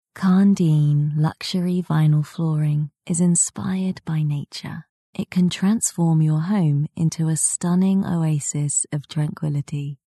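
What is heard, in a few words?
English UK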